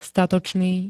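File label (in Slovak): "statočný", Slovak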